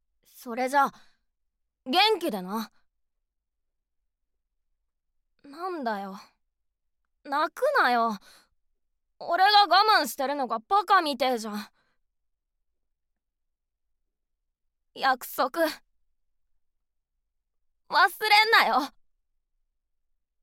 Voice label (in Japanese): syonen